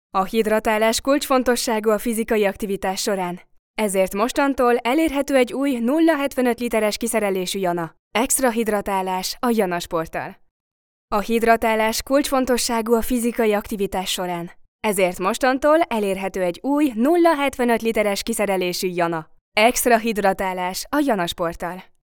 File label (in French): hongrois publicite